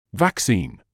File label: "vaccine", English